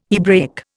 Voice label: "Hebraic", Indian